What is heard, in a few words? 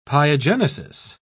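"pyogenesis", British English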